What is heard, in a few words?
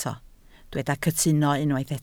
"cytuno", Welsh